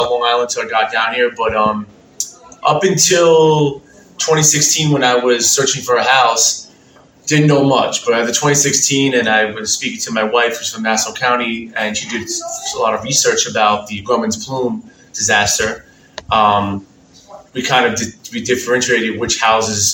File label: Interview Voice